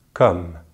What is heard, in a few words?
"comme", French